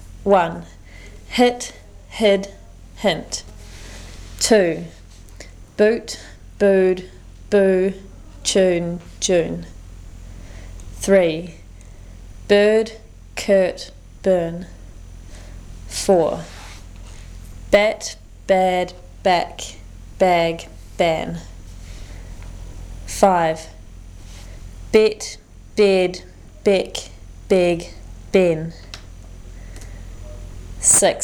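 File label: speaker wordlist, New Zealand English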